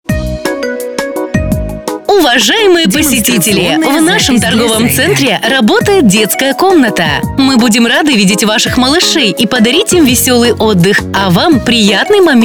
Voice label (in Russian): Детская комната женский